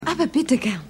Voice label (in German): Frau mit Zeitung; Maennerwirtschaft